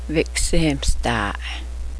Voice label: owl, Cheyenne; vé'kêséhemêstaa'e; literally, 'bird-spook'